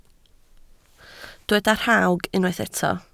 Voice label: "rhawg", Welsh

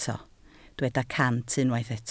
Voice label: "cant", Welsh

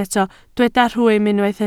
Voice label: "rhwym", Welsh

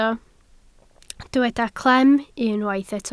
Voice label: "clem", Welsh

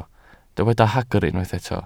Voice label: "hagr", Welsh

